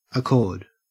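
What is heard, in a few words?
"accord", Australian English; pronunciation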